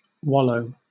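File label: "wallow", British English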